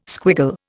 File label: "squiggle", Indian